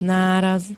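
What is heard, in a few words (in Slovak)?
"náraz", Slovak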